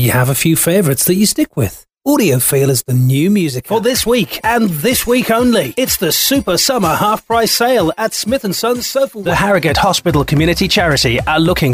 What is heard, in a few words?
commercial